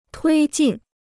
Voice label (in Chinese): "推进", Chinese